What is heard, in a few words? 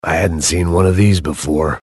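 "sentry ahead", male; tf; max payne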